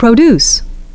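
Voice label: "produce", English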